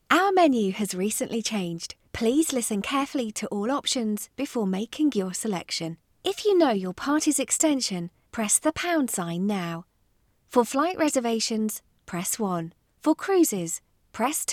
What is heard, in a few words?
english british ivr